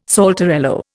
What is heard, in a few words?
"saltarello", Indian